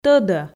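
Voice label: "тӧдӧ", Komi, female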